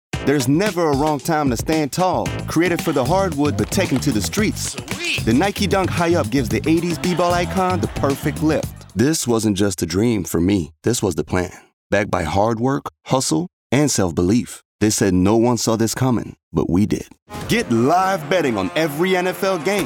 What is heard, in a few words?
Commercial; voice over